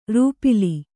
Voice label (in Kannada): "ರೂಪಿಲಿ", Kannada